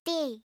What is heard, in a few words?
"d", alphabet